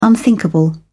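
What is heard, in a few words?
"unthinkable", British English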